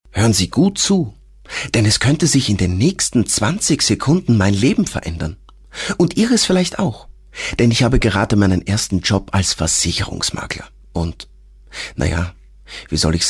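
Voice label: german austrian male narration; voice demos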